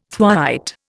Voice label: "thwaite", Indian

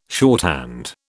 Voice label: "shorthand", British

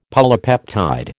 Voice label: "polypeptide", American

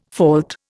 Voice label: "fault", Indian

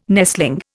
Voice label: "nestling", Indian

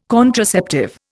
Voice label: "contraceptive", Indian

